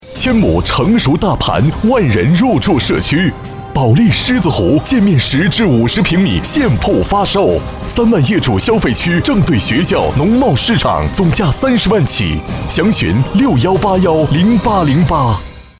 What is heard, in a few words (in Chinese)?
广告; 保利狮子湖